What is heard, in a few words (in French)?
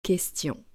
"Question", French